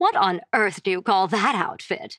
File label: Taunt Directed; Princess; Cassie; Paladins